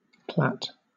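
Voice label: "plait", British English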